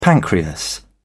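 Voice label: "pancreas", British English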